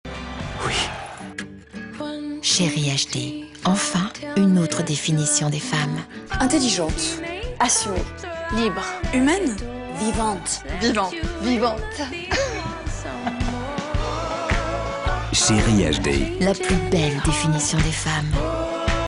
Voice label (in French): annonce confidentielle; CheriHD